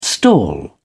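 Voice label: "stall", British English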